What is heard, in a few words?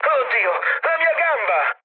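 Italian damaged pilot mood med, speech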